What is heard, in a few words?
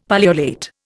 "pullulate", Indian; Dictionary